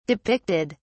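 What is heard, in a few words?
"depicted", British English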